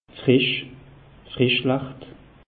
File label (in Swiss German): "frisch", alsace; meteo